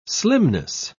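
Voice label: "slimness", British English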